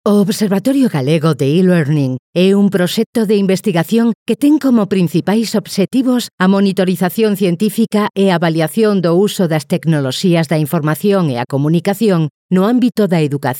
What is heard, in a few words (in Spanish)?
Voz femenina gallego